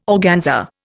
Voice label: "organza", Indian